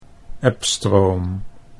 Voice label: "ebstroom", Dutch